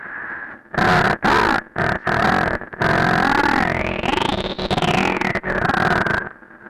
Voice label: EMS voice; Syntec; Wall of Sounds; TECHNO-BOX